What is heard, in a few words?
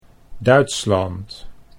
Duitsland, Dutch